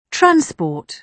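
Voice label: "transport", British English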